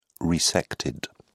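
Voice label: "resected", British English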